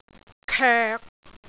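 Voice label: "koek6", Cantonese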